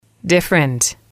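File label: "different", female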